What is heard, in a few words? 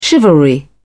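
"chivalry", English, British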